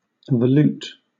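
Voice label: "volute", British English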